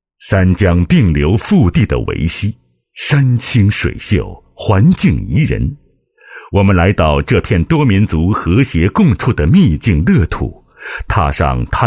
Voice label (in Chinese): 男 城市地区宣传 宣传简介; 维西